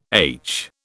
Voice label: "aitch", British